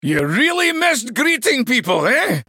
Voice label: male dwarf greetings

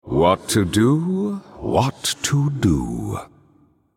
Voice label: male thinking

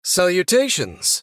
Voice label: male human greetings

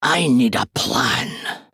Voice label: male dwarf thinking

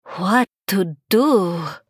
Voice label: female draenei thinking

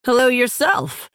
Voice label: female orc greetings

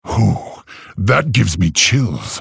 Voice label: male orc wow

